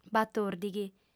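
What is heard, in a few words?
"batòrdighi", female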